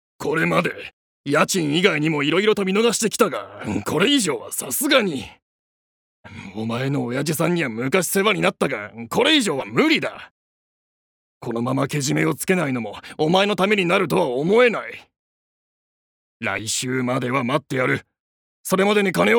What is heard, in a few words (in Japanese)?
外画